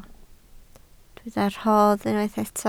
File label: "rhodd", Welsh